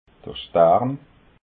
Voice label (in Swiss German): "starn", alsace